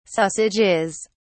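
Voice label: "sausages", English, British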